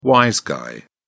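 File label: "wise guy", English, British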